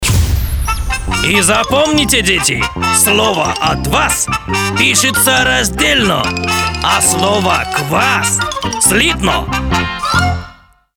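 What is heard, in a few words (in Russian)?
kavkazec, Caucasian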